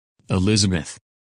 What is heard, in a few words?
"Elizabeth", women names; Английские имена: женские